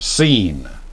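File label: "scene", English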